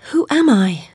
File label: chitchat; svencoop; Alice